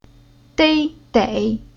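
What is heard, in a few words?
"Dei", Chinese syllable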